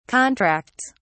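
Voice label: "contracts", British English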